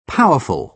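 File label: powerful, British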